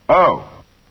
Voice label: announcer 'oh'; strange case